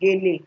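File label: "gele", Nigerian Pidgin, female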